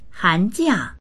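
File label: "han2jia4", Mandarin Chinese; 寒假; hánjià; 冬休み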